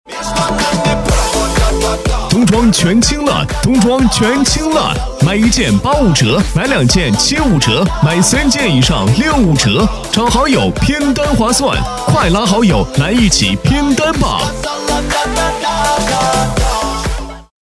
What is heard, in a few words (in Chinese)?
男 服装清仓广告 激情